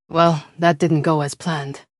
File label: upset; fertig classic games